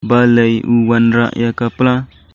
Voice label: Khasi

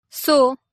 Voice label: "Soo", Gondi

